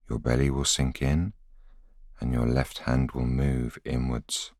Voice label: voiceover